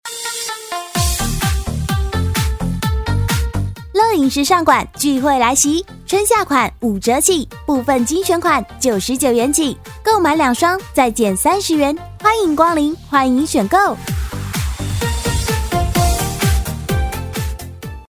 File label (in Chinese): 促销乐引